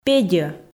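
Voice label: "педьӧ", Komi, female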